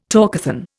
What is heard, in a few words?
"talkathon", Indian